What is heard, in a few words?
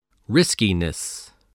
"riskiness", English spelling